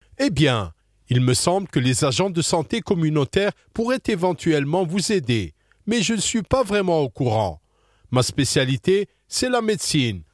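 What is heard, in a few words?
African French, E-Learning; GoLocalise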